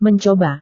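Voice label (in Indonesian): "mencoba", Indonesian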